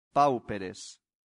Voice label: "pauperes", latin; santillana